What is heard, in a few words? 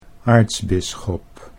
"aartsbisschop", Dutch